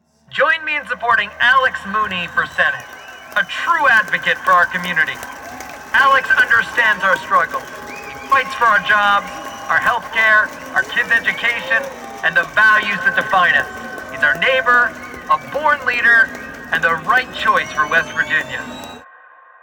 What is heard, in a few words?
Republican Image Ad VO